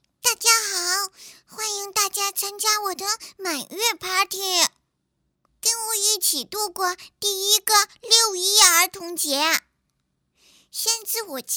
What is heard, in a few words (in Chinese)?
女, 满月宴